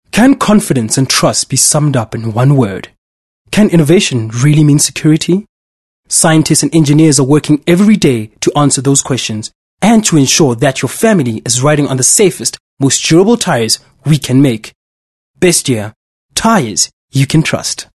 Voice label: English corporate